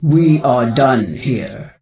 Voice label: NPC Death Knight Female Low Farewell